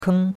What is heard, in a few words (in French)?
"keng1", chinois; Cours de Chinois